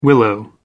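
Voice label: "willow tree", British English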